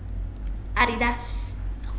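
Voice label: "Adidas", British English, female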